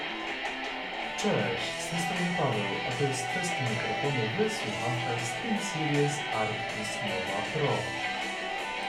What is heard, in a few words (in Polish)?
steelseries arctis nova pro glosno